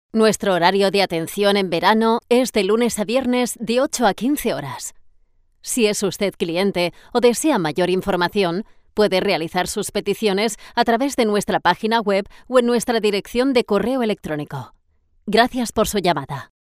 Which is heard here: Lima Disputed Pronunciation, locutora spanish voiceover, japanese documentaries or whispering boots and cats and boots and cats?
locutora spanish voiceover